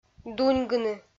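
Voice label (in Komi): "дуньгыны", Komi, female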